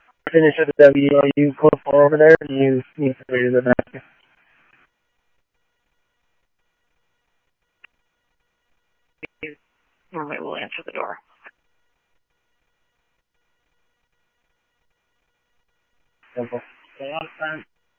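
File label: police radio dispatch, ScottsdalePolice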